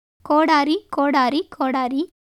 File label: Tamil